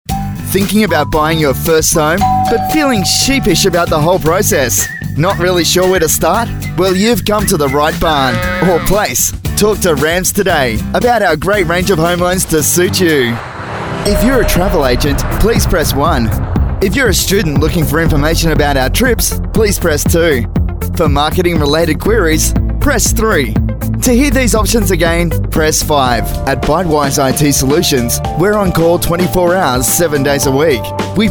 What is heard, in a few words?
Male Talent